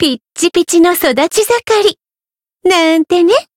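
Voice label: growth, Japanese; pizzica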